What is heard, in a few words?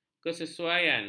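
"kesesuaian", Malay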